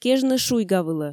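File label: "кежны шуйгавылӧ", Komi, female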